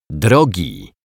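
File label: "drogi", Polish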